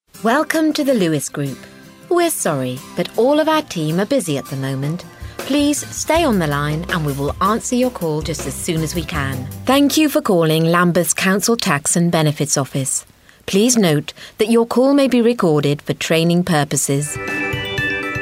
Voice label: london on hold